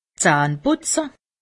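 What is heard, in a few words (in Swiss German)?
"zahnputze", Alsatian; medecine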